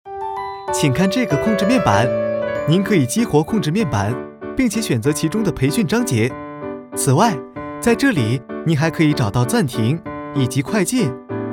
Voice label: Cince Erkek Mandarin Cin Simplified, pekin seslendirme

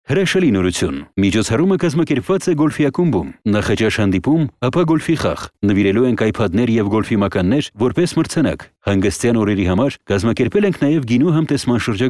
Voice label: Ermenice Erkek; seslendirme

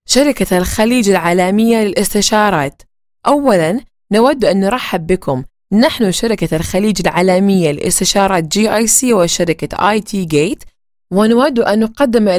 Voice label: Arapca Kadin Suudi Arapcasi, seslendirme; kadin sesler

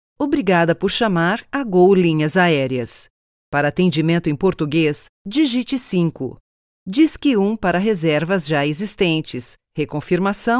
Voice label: Portekizce Kadin Brezilya; portekizce seslendirme; kadin sesler

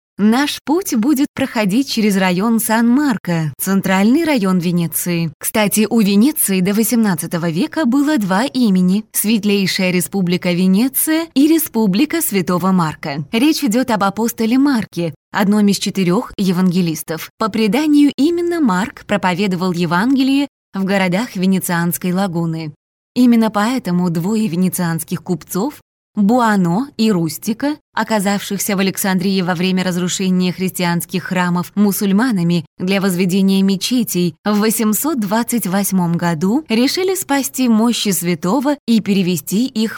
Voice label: Rusca Kadin, seslendirme; kadin sesler

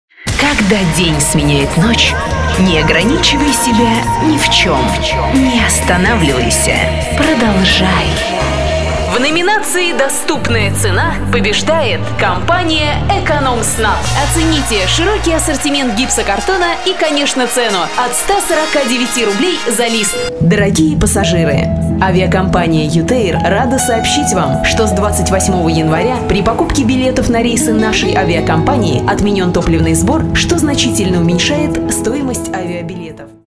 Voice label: Rusca Kadin, seslendirme; kadin sesler

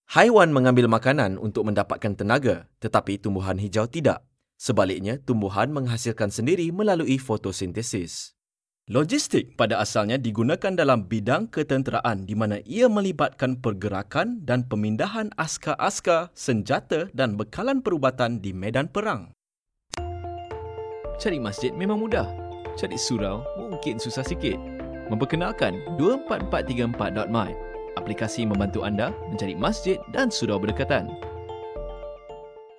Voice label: Malayca Erkek Handsome Malezya Singapur Brunei, seslendirme; erkek sesler

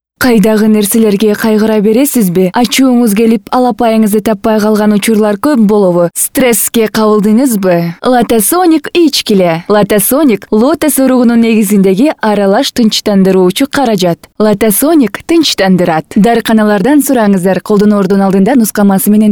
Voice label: Kirgizca Kadin; seslendirme

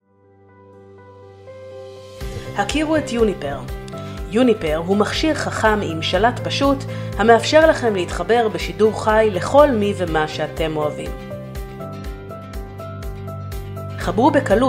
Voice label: Ibranice Kadin; seslendirme

